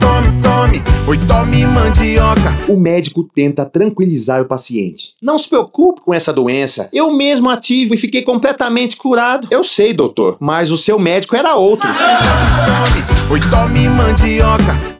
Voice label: spot piada

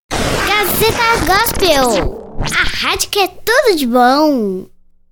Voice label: vinheta a radio que e td de bom crianca

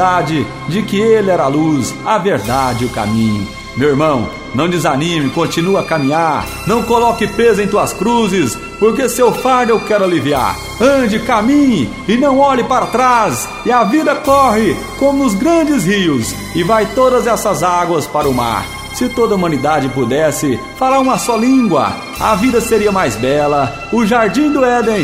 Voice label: refletindo sobre a bondade de Jesus locução

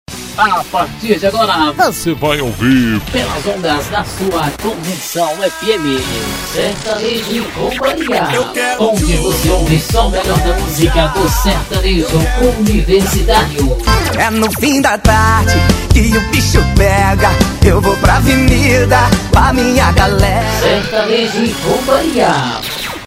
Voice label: locutor sertaneijo

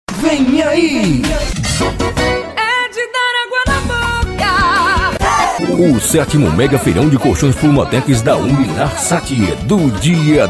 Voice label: locutor; feirao

